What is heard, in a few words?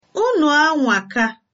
congratulations, igbo